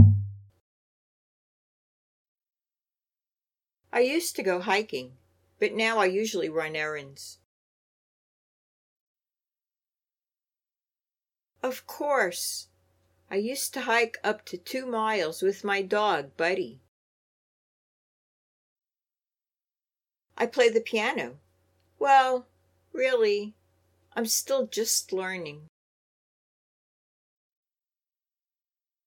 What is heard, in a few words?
Natural Dialog